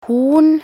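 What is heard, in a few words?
"horn", Norwegian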